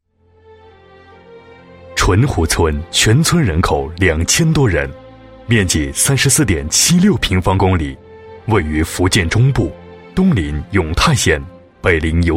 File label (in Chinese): 男 自然大气, 浑厚男声; 村子简介